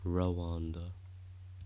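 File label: "Rwanda", British English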